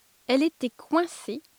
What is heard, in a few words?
Ditropic French Idiomatic